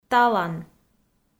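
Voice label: "талан", Komi, female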